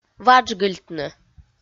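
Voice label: "ваджгыльтны", Komi, female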